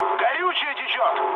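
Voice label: tank damaged, mood med, Russian; War Thunder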